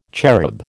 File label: "cherub", American